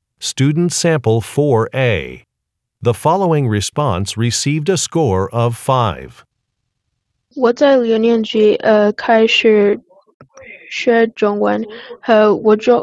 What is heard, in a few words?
chinese conversation